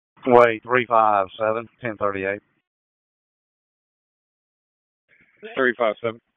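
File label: Sheriff's Office Dispatch; WSO DISP 1; wake; p25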